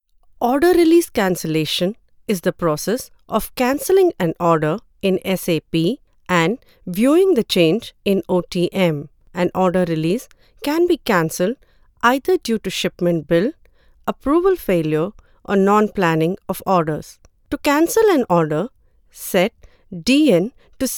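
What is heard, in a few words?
e-learning english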